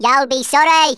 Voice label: "youll regret that", Brummie; Worms Armageddon